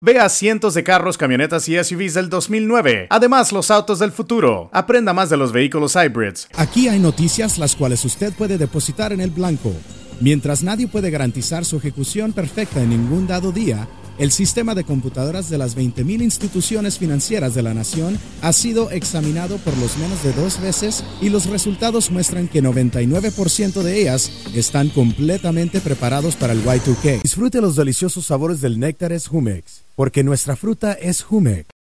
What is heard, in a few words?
Spanish, males